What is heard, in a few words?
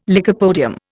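"lycopodium", Indian English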